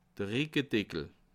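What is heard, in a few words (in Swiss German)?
"rickedeckel", Bas Rhin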